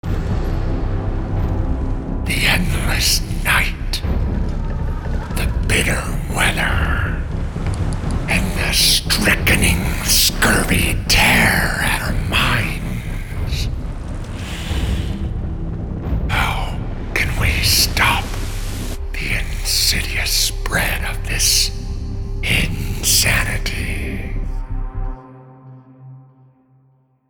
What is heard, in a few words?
Voice Actor Voiceover Voice Over Video Game Novel Audiobook Audio Book TV Commercial; Trailer Sea Cap